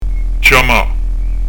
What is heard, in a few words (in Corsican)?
"chjama", pronuncia; dizco grammatica